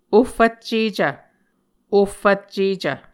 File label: "ohfvccecv", Muscogee; Mvskoke Words with Repeated Consonants; A Dictionary of Creek/Muskogee; SIL International